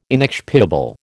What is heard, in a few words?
"inexpiable", American